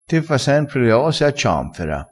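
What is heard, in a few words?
"a cianfera", Italian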